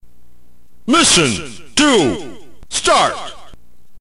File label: narrator